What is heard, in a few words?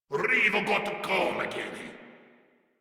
Player Spotted, Grineer Male; Warframe